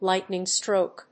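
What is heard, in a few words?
"lightning stroke", English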